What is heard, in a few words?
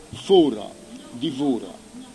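"fora", Corsican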